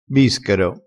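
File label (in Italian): "bischero", dialettale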